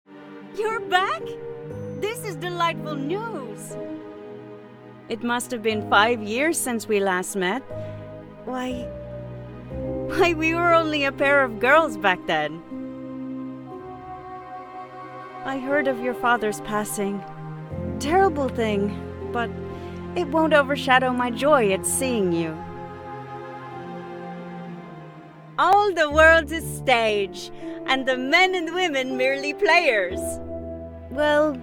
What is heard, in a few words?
EU F young adult confident charismatic; The Necromancers Tale; fantasy